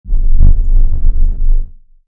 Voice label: anime scary lines